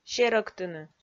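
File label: "серӧктыны", Komi, female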